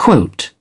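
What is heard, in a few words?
"Quote" British English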